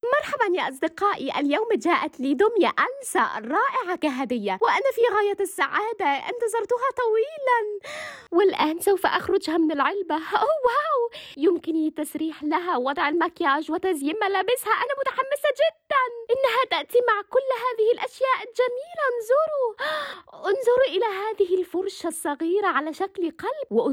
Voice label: Kids Video